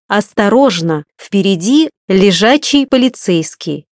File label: Speed Breaker, RU voice female; MioMap C220; iGO831